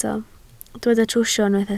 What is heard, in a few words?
"trwsio", Welsh